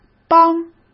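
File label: "帮", Mandarin Chinese; chinese-flashcards; hsk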